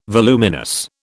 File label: "voluminous", British